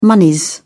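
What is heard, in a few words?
"monies", English, British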